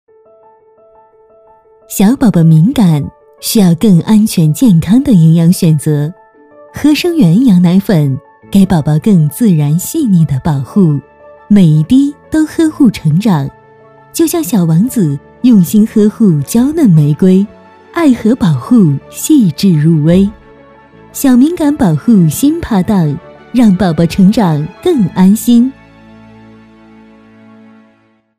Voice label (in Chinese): 女 奶粉广告